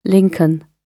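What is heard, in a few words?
"lincoln", British English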